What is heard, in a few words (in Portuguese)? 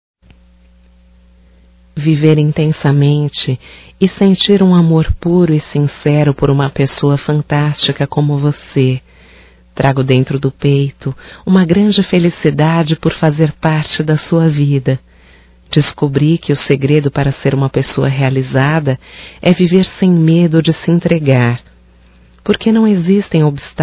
Romântico Feminino